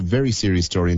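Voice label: "very serious story", Irish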